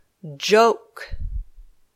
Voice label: "joke", English